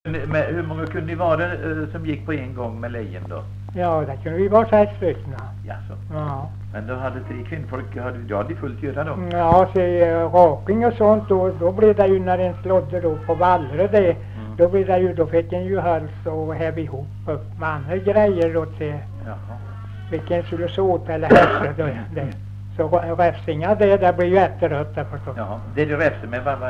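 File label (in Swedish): Visnums-Kil Värmland, dialekter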